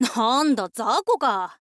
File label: thief boy start